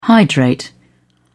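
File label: "hydrate", British English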